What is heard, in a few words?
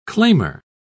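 "claimer", British English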